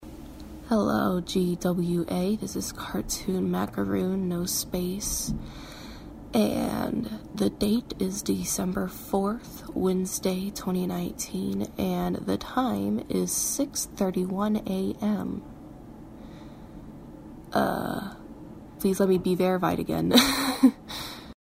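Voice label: Female verification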